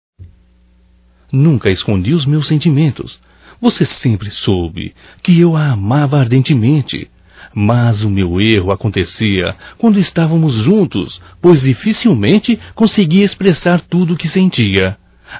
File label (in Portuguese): Romântico Masculino